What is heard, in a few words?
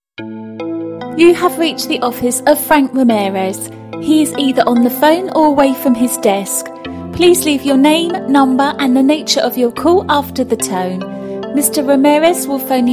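UK, female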